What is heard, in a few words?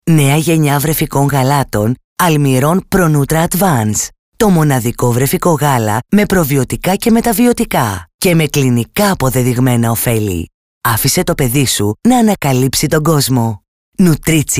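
Greek, female, explainer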